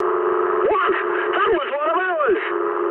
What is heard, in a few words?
eng player killed ally mood high, speech eng; War Thunder